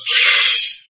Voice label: vox exclaim; Voice Talk